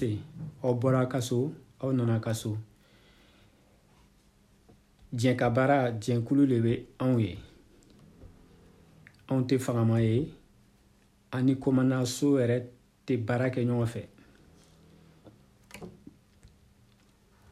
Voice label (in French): malinke bienvenue conseil; Collectifs; conseil csp